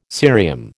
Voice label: "cerium", American

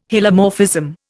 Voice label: "Hylomorphism", Indian

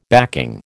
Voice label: "backing", American

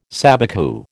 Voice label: "sabicu", American

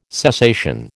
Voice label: "cessation", American

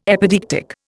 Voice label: "epideictic", Indian

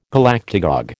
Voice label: "Galactagogue", American

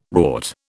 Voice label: "rort", British

